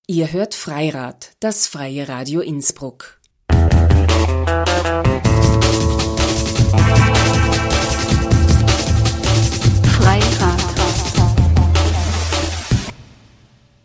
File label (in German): jingle kurz weiblich hallig freirad